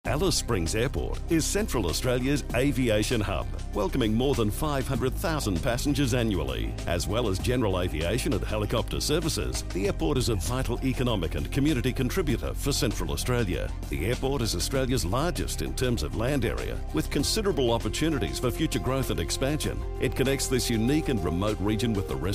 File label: airport tvc; alice springs airport